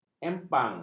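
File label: "empang", Malay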